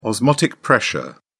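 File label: "osmotic pressure", British English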